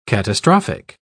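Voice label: "catastrophic", British English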